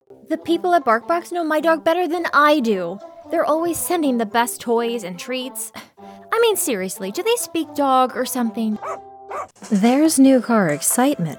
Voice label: Commercial